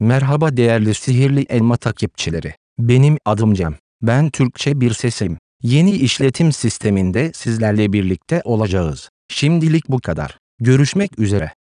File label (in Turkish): turkce ses; mavericks